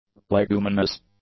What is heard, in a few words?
"leguminous", english; adjectives